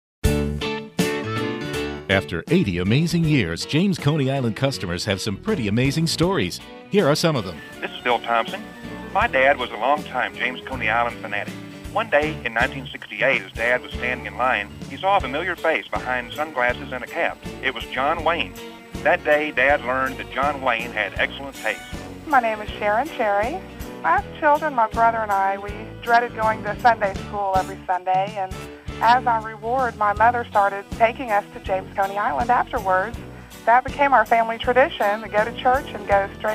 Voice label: radio commercial